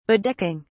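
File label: "bedecking", English, British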